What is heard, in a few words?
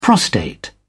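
"prostate", British English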